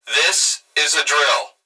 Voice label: Emergency Human Male Voice Plant Security Dispatch This Is A Drill; emergency effects